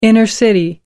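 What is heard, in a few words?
"human being", English, uk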